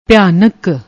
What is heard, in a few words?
"bhiaanak", Punjabi